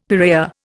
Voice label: "piraya", Indian; Dictionary

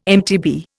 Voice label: "MTB", Indian

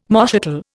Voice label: "moschatel", Indian

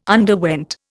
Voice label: "underwent", Indian

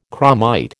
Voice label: "chromite", American

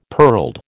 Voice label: "pearled", American

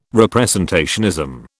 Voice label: "representationism", British

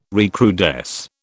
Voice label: "recrudesce", British

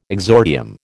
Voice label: "exordium", American

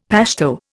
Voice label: "Pashto", Indian; Dictionary

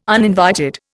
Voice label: "uninvited", Indian

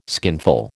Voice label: "skinful", American

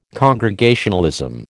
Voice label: "Congregationalism", American

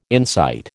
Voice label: "insight", American

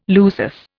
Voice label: "lusus", Indian

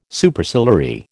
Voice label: "Superciliary", American

